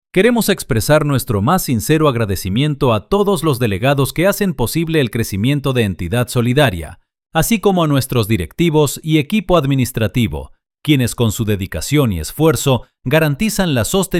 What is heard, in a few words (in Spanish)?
masculino